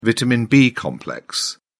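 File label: "vitamin b complex", English, British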